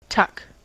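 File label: "tuck", American English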